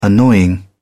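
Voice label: "annoying", British English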